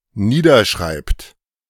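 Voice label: "niederschreibt", German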